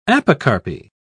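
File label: "apocarpy", British English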